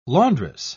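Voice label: "laundress", British English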